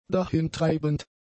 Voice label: "dahintreibend", German; adjectives

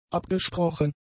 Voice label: "abgesprochen", German; adjectives

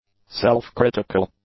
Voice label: "self-critical", English; adjectives

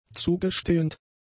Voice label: "zugestehend", German; adjectives

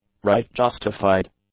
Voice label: "right-justified", English; adjectives

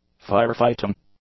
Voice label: "firefighting", english; adjectives

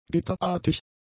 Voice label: "gitterartig", German; adjectives

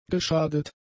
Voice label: "geschadet", German; adjectives

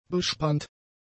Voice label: "bespannt", german; adjectives

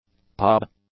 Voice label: "pub", English; nouns

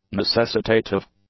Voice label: "necessitative", english; adjectives

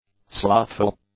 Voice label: "slothful", English; adjectives

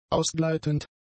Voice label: "ausgleitend", German; adjectives

